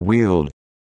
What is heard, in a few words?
"Wield", English